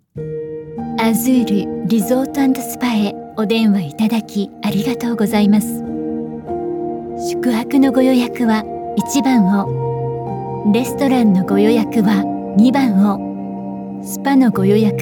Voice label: japanese ivr